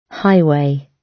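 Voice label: "highway", British English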